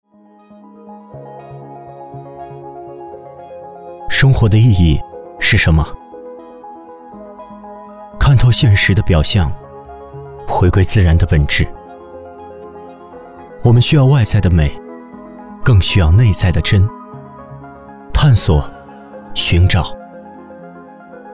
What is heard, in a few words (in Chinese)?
男 生活讲述广告 瓷砖（讲述）; 剑牌瓷砖